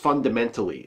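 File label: "fundamentally", American English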